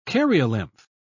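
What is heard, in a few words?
"karyolymph", British English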